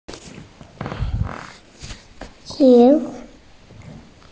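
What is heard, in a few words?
"zuo4wei4", Mandarin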